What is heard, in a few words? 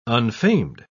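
"unfamed", English, uk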